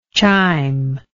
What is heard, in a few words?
"chimb", English